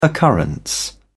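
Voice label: "occurrence", British English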